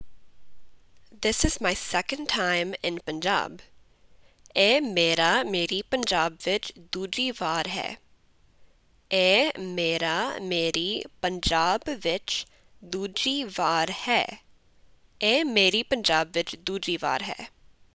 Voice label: Punjabi This is my second time in Punjab